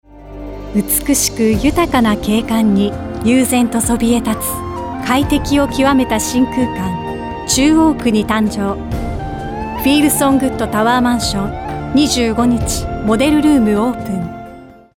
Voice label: Narration